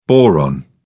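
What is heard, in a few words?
"boron", British English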